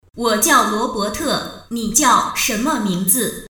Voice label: 女 女机器人

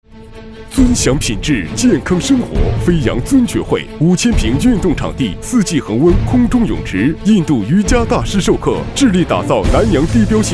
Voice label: 男85 【促销】电台浑厚有力

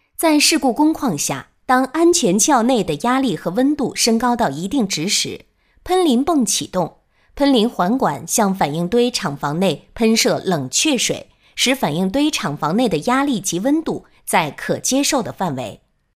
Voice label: 女 工业类产品介绍; 安全壳喷淋系统

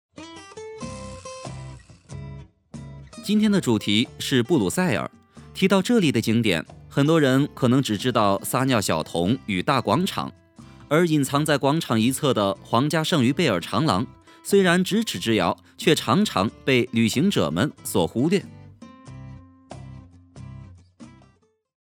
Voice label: 男86 年轻活力; 布鲁塞尔

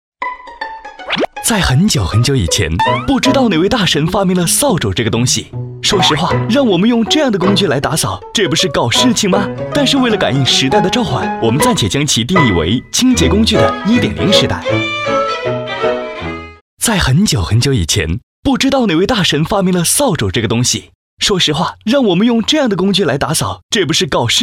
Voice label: 男; 【飞碟说】扫帚飞碟

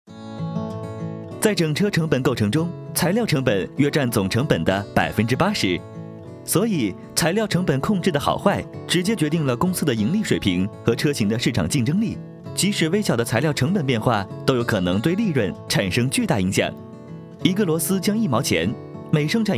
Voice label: 男; 材料成本的控制

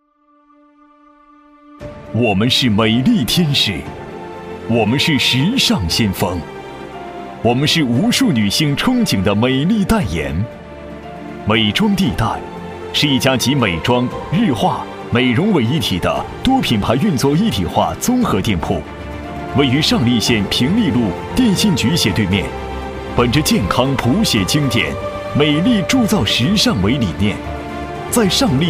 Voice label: 男56 美妆地带（大气浑厚）

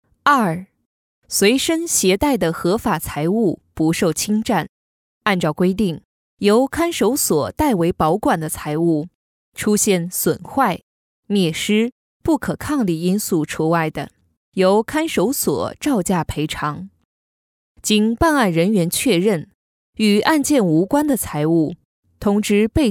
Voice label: 女55 【课件解说】法律知识

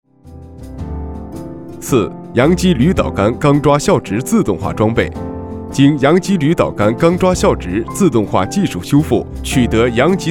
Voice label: 男85 【课件】课件解说混音; 浑厚

